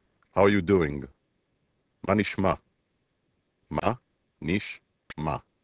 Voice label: Hebrew Greet

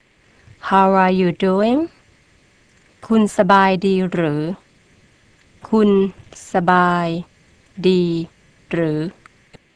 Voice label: Thai Greet

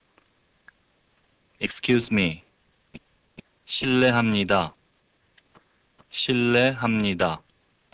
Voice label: Korean Excuse

